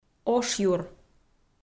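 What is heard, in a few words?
"ошъюр", Komi, female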